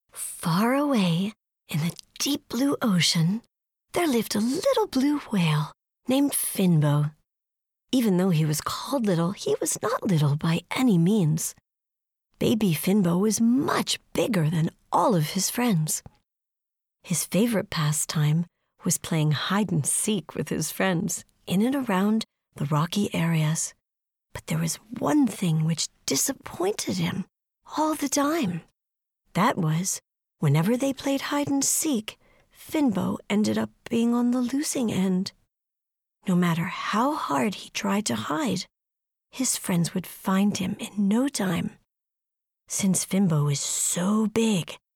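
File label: English US, female, narration; voice over; american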